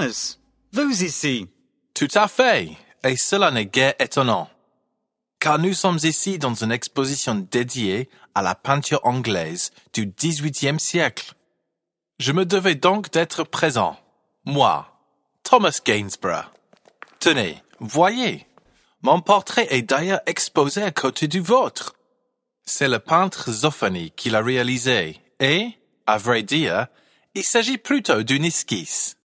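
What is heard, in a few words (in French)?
male, French, Accent British, GUIDE AUDIO POUR ENFANTS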